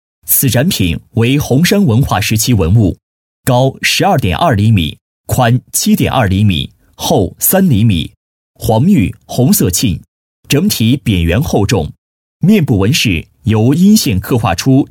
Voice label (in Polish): Chinski